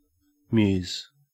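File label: "muse", Australian English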